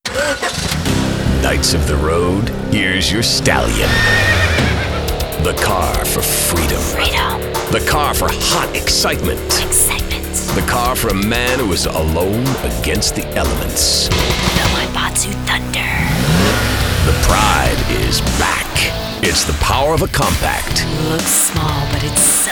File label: Commercial; Wildstyle Pirate Radio; Maibatsu Thunder